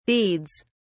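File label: "deeds", British English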